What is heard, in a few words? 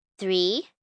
Numbers Three, 1 child, girl counting; Talking; Voice Box